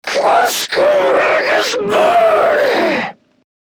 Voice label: Attack Grineer Manic; Battle Barks